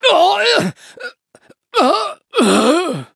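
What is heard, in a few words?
defeat, French; Cookie Run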